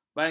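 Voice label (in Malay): "bancut", Malay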